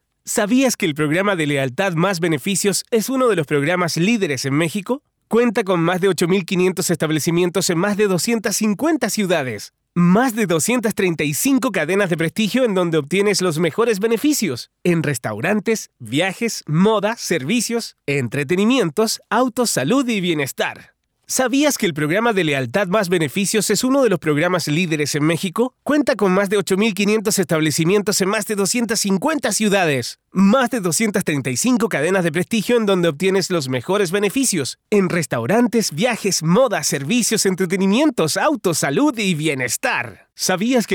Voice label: Video Explicativo Animado

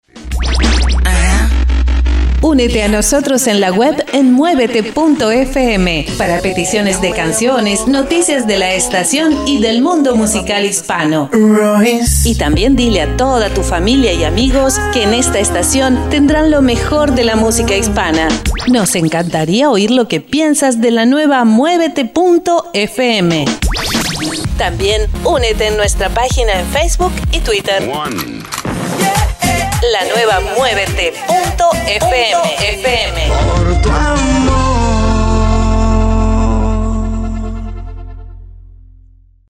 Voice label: INSTITUCIONAL; MUEVETE FM

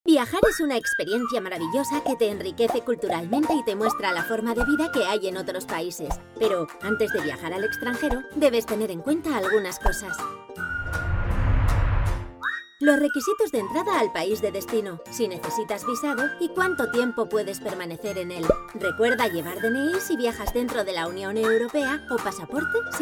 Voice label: video informativo

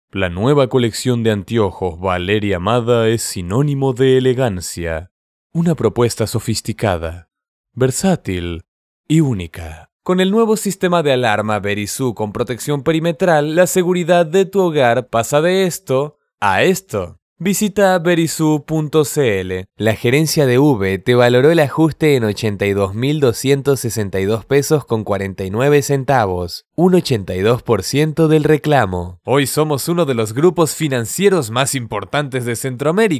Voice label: Institucional, corporate voices

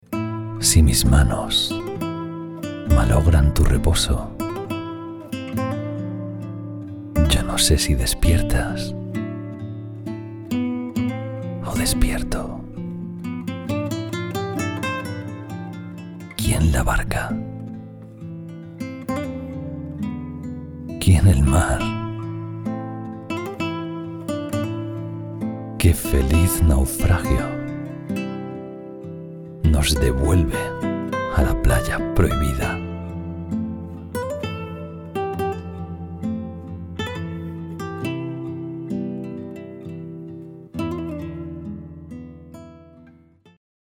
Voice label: poema guitarra

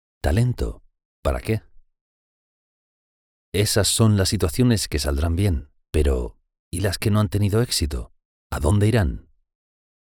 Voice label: hombre; mapfre

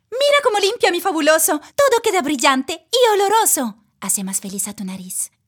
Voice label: Publicidad AD

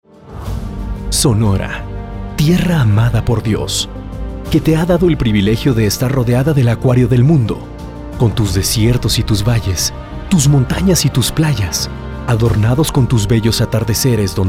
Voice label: INSTITUCIONAL

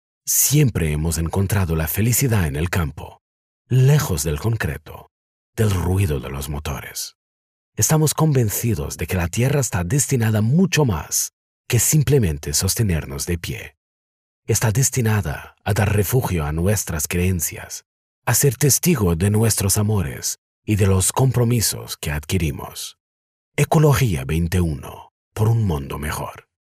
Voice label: ESPANHOL

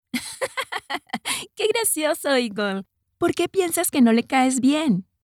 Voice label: PERSONAJE EDUCATIVO

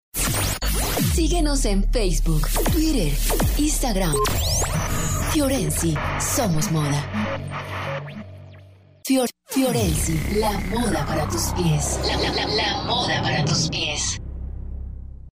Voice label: PUBLI COLOMBIA; FIORENZY

